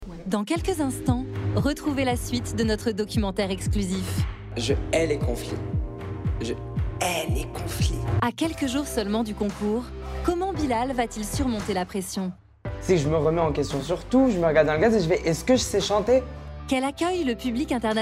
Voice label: annonce doc; BILAL HASSANI

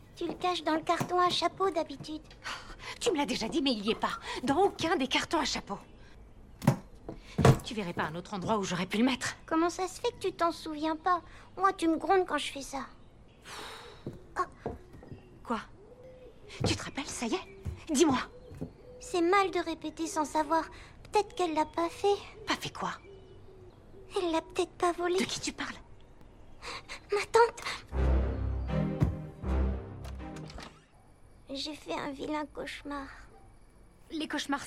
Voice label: Voix petite fille serie; voix-off; Leyla; Netflix

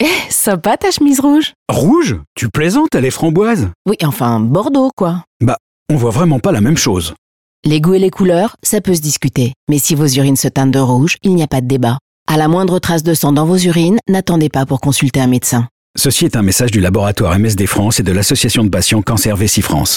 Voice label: Pub Radio Dialogue naturel puis depite et signature; Laboratoire MSD France

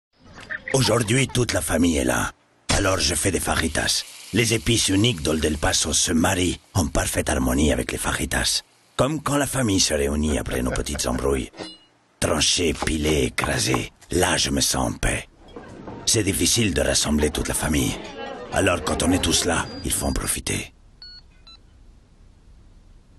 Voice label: Spot TV; OLD EL PASO

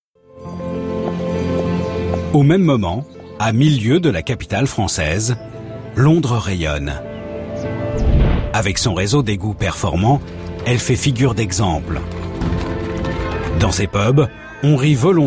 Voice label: Voix Off; RMC Découverte; Les lieux secrets sous la capital

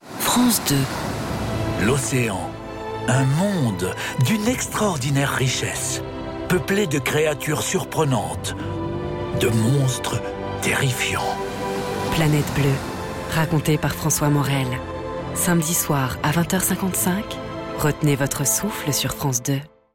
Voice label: Spot Radio; Planete Bleue

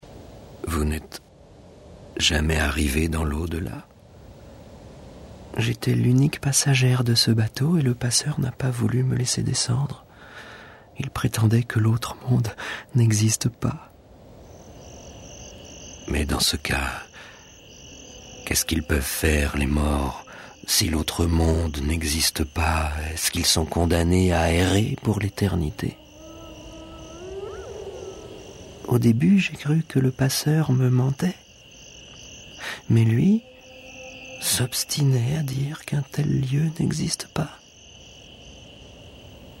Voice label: Voix off sur FICTION; The Sound Of Insects; dialogue avec un fantôme; FICTION EXPÉRIMENTALE

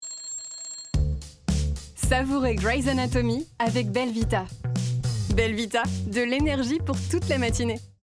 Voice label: pub billboard souriant chaleureux espiegle; BELVITA

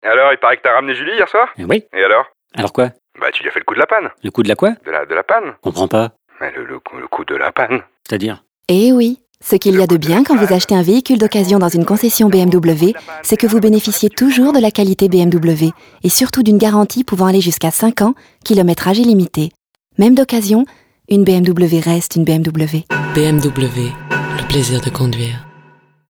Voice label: voix off; BMW

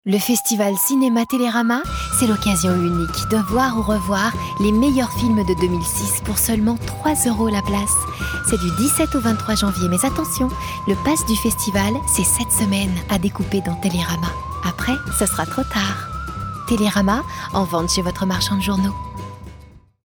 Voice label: RADIO CINEMA; TELERAMA

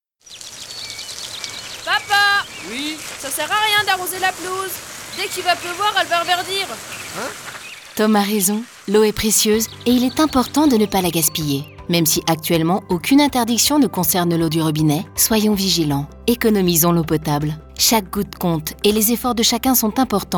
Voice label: spot arrosage; VENDEE EAU

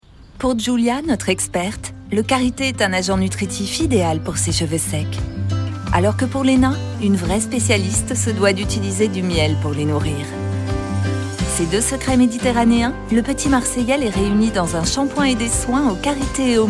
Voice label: naturelle souriante; LE PETIT MARSEILLAIS; Karite et Miel

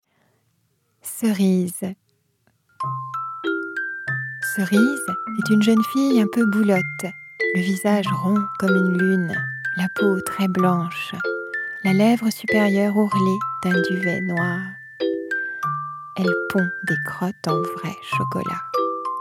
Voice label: RADIO Conte de Noël; ARTE; Cerise

